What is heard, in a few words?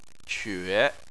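"que", Chinese, tone 3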